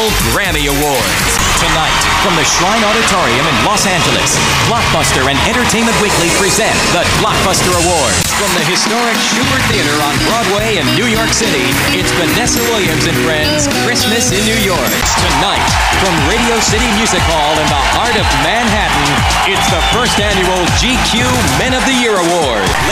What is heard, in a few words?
announcers male live; show and stadium announcers